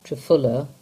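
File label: "Trefula", English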